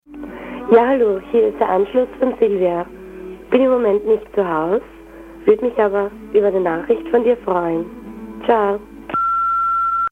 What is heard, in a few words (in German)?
Anrufbeantworter